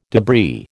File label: "debris", American